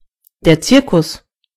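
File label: circus, German voices words; gcompris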